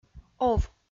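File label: "ов" Komi-Zyrian, female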